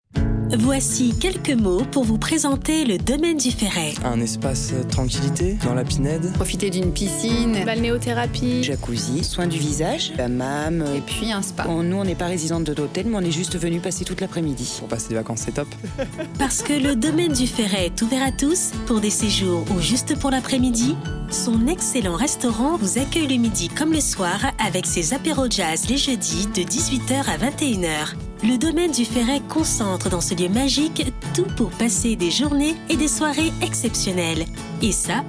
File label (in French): Spot publicitaire; Domaine du Ferret 2018 diffusé sur Radio Cap Ferret